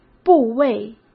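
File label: "部位", Mandarin Chinese; hsk; chinese-flashcards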